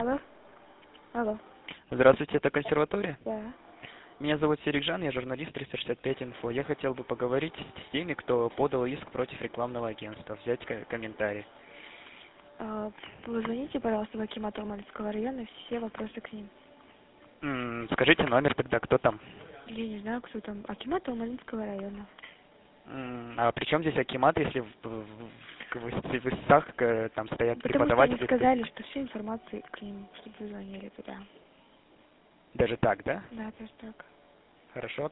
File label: recorded call